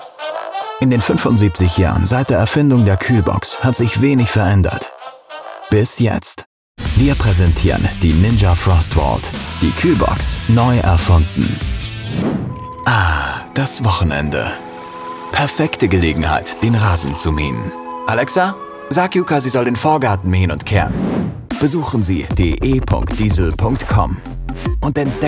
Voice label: German Commercial